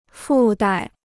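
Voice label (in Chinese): "附带" Chinese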